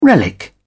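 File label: "relic", British English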